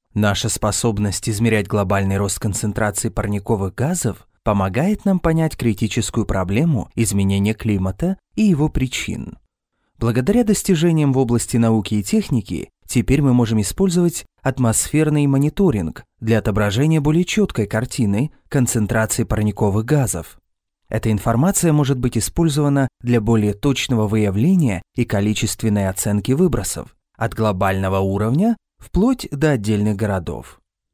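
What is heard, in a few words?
Russian Russia male narrative; russian voice over talent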